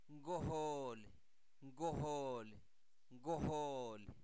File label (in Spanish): duro, Zapoteco, santo domingo albarradas; adjetivos; INALI